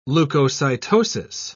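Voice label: "leukocytosis", British English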